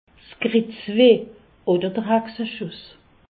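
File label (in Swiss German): "kritzweh", Alsace, Haut Rhin; medecine; lumbago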